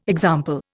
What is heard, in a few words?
"example", Indian